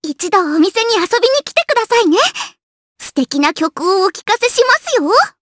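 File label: vox dia Japanese; Rehartna; kingsraid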